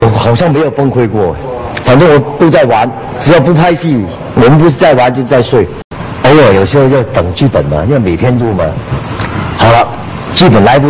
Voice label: interview